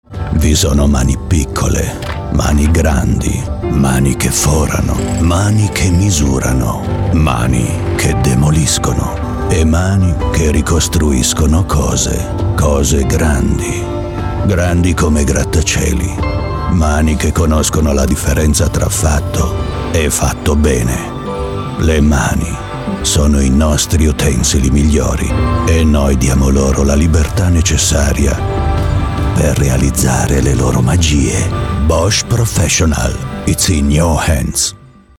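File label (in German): Italian, Fremdtake Kraftvoll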